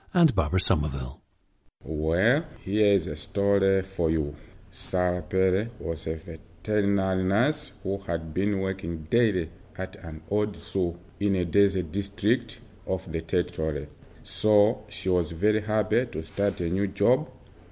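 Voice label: malawi, International Dialects of English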